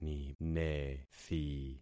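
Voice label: "ninethi", concatenated syllables; Affixing experiment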